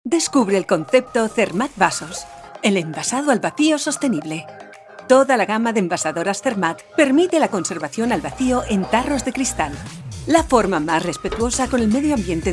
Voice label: spanish announcements